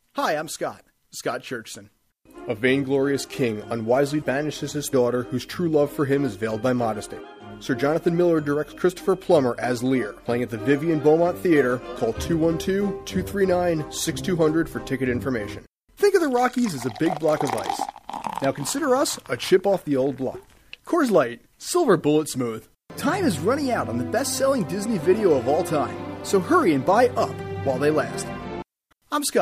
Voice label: commercials only